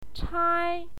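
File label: "chai", Mandarin Chinese, first tone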